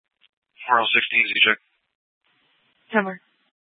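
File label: police dispatch, Scottsdale PD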